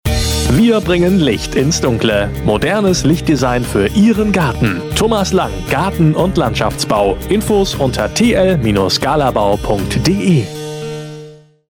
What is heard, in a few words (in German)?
Radiospot